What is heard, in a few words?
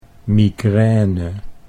"migraine", Dutch